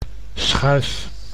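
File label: "schuif", Dutch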